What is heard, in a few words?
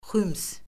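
"xüms", Azerbaijani; Orfoqrafiya Lüğəti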